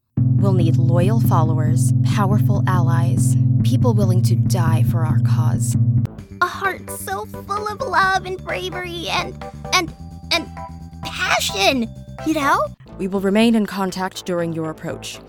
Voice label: english american video games